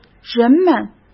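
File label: "人们", Mandarin Chinese; hsk; chinese-flashcards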